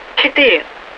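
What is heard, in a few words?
Russian speech, Mi-8MT RI65; 4; DCS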